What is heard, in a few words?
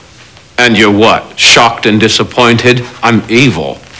shocked